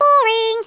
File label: "boring", English speech